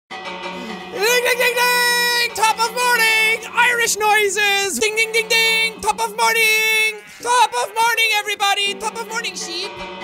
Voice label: irish noises